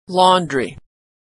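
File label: "laundry", American English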